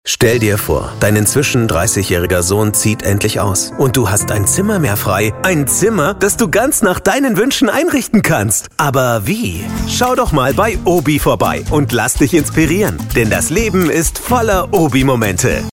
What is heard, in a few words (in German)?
DEUTSCH MALE Werbung Off; OBI; Sohn aus dem Haus